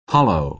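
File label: "hollow", American English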